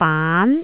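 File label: "baan2", Cantonese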